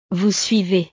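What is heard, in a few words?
"vous suivez", French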